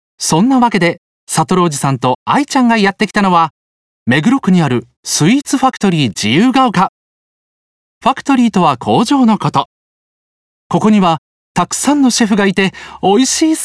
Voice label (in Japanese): グルメ番組ナレ明るめ